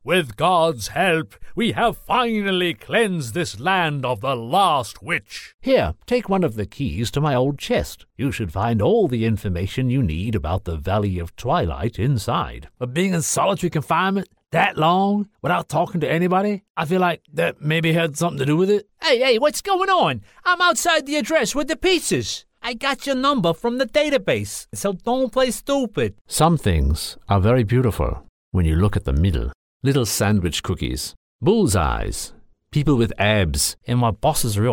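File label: Australian English, Characters; GoLocalise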